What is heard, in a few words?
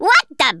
what the, Rasta